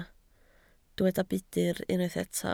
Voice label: "budr", Welsh